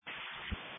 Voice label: Police Dispatch